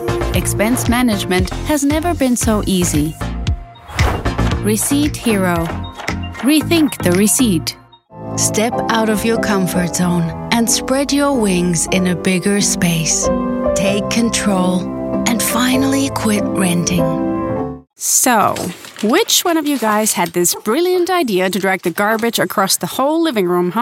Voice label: finnish female voice english commercial